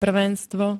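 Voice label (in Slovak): "prvenstvo", Slovak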